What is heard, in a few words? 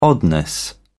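"oddness", English, British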